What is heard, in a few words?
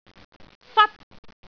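"fat1", Cantonese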